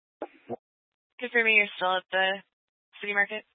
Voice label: Police Dispatch

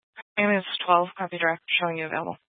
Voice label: Fire Department Dispatch, scanner

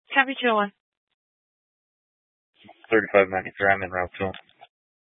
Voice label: Police Department Dispatch; Grand Junction

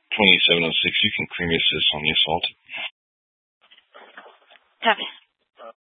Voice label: Sheriff Dispatch, scanner; Mesa County

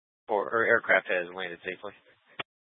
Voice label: Tactical Incidents, scanner

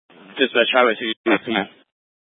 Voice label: Incident Tac, scanner; Incident Tac 1

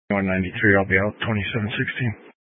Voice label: Mesa County Sheriff Dispatch

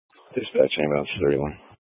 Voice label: Fire Dispatch, scanner

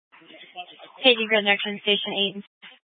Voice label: Fire Department Dispatch; Grand Junction

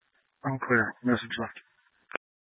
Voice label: Sheriff Dispatch, Scanner audio recording

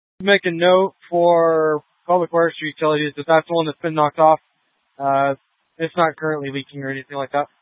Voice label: Police Department Dispatch; scanner; Grand Junction

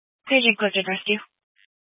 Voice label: Fire Dispatch, scanner

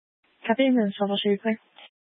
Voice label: Grand Junction Fire Department Dispatch

